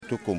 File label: "Cormorant", Yimas